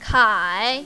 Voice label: "kai3", mandarin, female

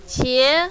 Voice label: "qie2", mandarin, female

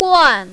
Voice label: "guan4", mandarin, female

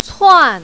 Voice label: "cuan4", mandarin, female

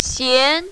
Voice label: "xian2", mandarin, female

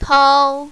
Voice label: "tao1", mandarin, female

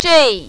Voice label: "zhei4", mandarin, female

